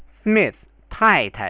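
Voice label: Smith taitai, Chinese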